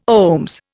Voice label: "OHMS", Indian

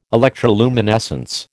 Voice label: "electroluminescence", American

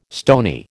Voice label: "stony", American

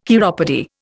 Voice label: "chiropody", Indian

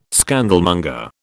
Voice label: "scandalmonger", British

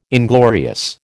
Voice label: "inglorious", American

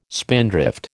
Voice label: "spindrift", American

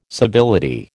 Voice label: "civility", American

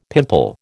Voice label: "pimple", American

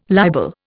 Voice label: "libel", Indian

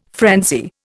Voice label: "frenzy", Indian

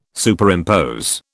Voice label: "Superimpose", British

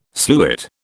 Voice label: "sluit", British

